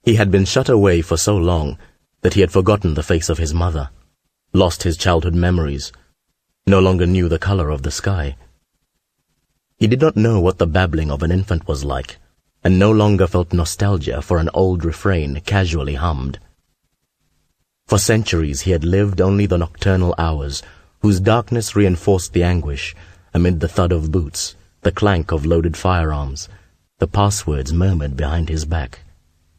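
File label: drama; 20s-30s. Male. London. Home Studio.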